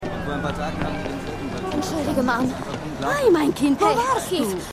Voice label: Mann; Mann Bei Keith; Manhattan Love Story

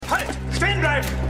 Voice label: Polizist, Stimmproben; Revolution

